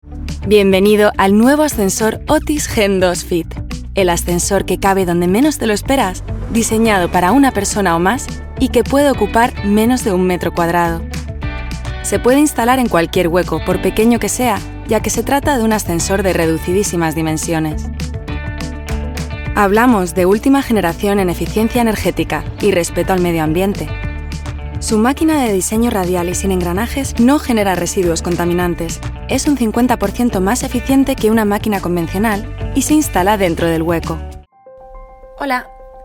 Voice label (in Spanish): Corporativa ES